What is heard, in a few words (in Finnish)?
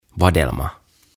"Vadelma" "Raspberry"; OTAVA KONSERNI